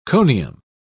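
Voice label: "conium", British English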